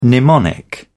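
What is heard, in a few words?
"mnemonic", British English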